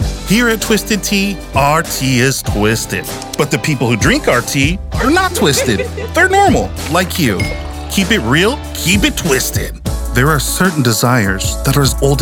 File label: COMMERCIAL